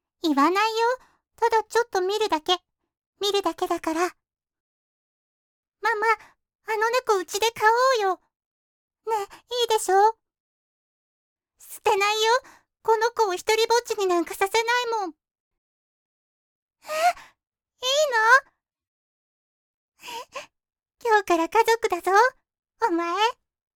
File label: japanese animation